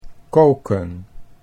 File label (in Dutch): "koken" Dutch